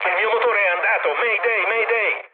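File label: Italian damaged engine mood low, speech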